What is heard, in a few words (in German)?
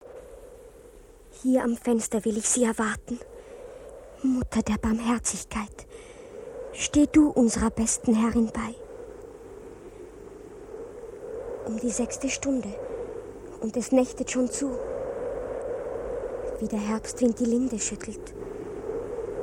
rundfunk neues europa 89.00 mhz